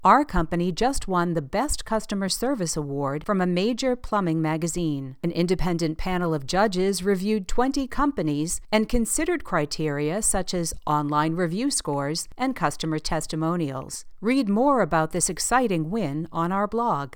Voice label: On hold announcement